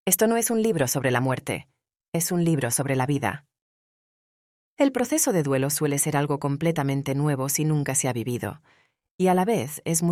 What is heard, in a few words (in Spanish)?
Femenina